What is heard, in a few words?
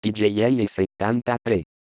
male